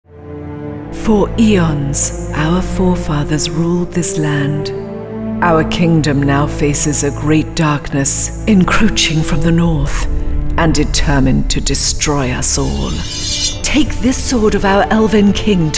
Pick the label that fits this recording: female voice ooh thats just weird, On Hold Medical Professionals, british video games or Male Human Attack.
british video games